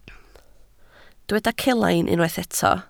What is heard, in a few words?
"celain", Welsh